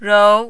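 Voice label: "rou", Mandarin, second tone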